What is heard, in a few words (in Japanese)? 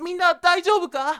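"shonen minnadaizyoubuka", Japanese boy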